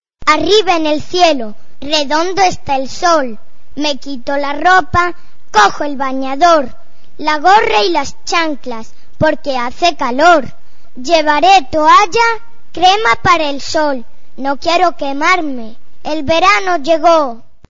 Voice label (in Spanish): estaciones poesia verano; Poesías